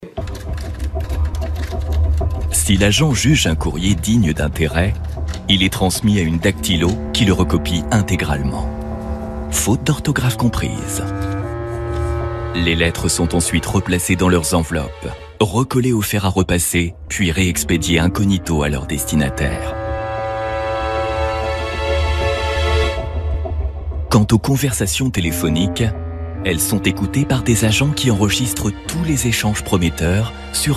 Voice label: documentaire, Voix off documentaire; QUAND VICHY ESPIONNAIT LES FRANCAIS dramatique

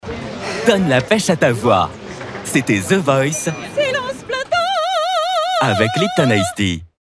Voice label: souriant, Voix off parrainage; LIPTON ICE TEA

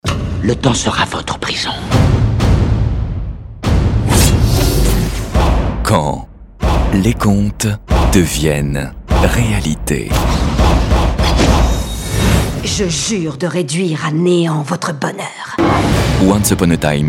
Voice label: mysterieux; Once upon a time

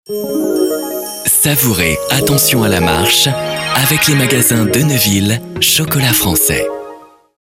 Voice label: elegant, comedien voix off; DE NEUVILLE elegant

